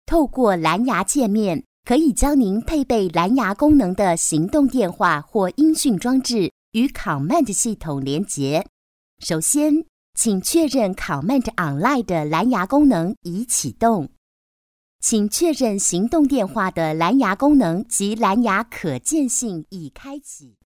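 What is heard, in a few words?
Taiwan, female, narration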